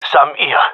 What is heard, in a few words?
sees enemy, gendarmerie male; vo